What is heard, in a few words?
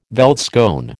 "veldskoen", American